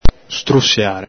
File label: "strusiare", Veneto